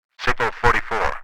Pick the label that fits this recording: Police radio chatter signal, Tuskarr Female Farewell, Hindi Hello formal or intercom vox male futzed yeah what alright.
Police radio chatter signal